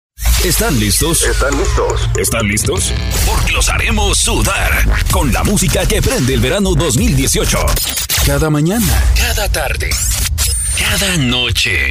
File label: Radio Imaging